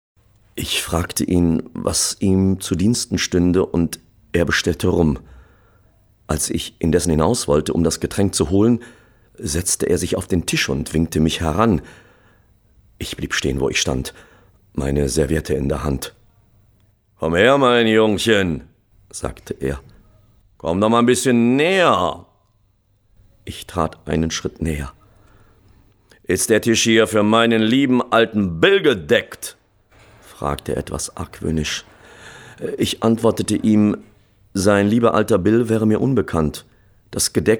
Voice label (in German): hoerbuch